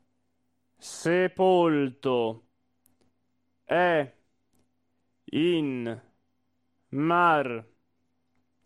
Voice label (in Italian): monotono; Otello; Esultate